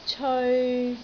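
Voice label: "ceoi3", Cantonese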